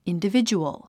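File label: "individual", English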